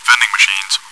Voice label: vending machines, bot; csgo